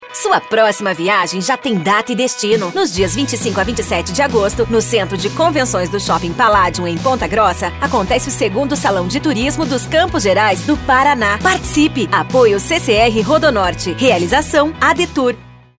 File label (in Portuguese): SPOT; Salão de Turismo